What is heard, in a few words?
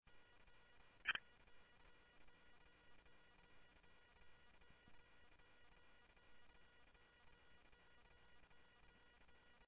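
Mesa Police Department, scanner audio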